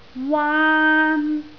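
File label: "waan1", Cantonese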